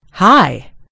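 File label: "high", American English; gcompris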